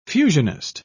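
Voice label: "fusionist", British English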